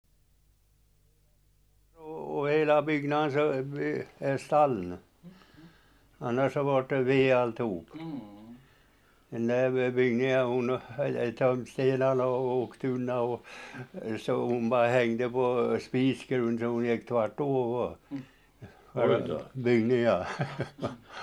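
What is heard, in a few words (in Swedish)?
Mo Hälsingland, Svenska sagor, dialekter; Folkminnen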